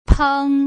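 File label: "peng", Mandarin Chinese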